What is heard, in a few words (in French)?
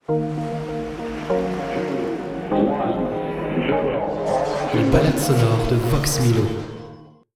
Jingle Ballade Sonore Avec Voix